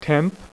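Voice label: "tenth" spoken, poker; iPoker 2000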